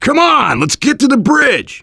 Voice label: directional, voice, biker

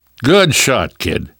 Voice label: nice shot, namvet, survivor voice; Left 4 Dead 2; left4dead2 dlc3

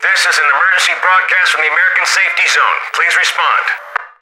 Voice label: radio initial broadcast, soldier; Left 4 Dead 2; left4dead2 dlc3; npc

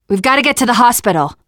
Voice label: world hospital, teengirl, voice; player; survivor

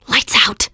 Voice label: kill that light, teen girl; player; survivor; voice

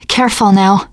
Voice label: warn careful, teen girl; player; survivor; voice

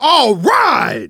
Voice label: taunt, survivor voice; manager; Left 4 Dead 2; left4dead2 dlc2

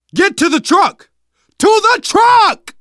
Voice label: arrive truck, survivor voice; Left 4 Dead 2; left4dead2 dlc3

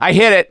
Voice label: misc directional, voice; gambler

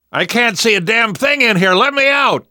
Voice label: call for rescue, survivor voice; namvet; Left 4 Dead 2; left4dead2 dlc2

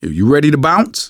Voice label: ask ready, survivor voice manager

